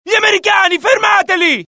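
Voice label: alert, Italian; mohaa; dialogue; sighted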